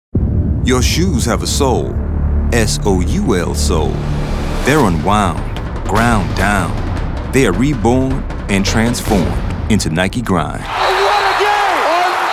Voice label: Commercial